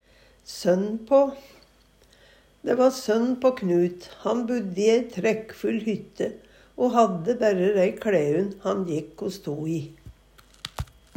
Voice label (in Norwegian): sønd på, Numedalsmål